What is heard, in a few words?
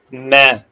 short voyels arabic alphabet